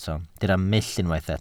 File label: "mull", Welsh